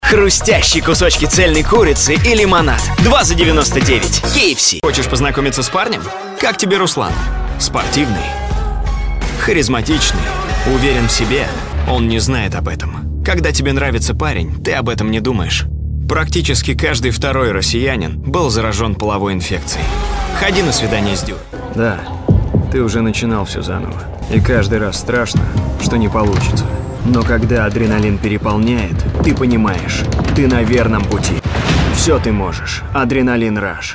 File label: Rus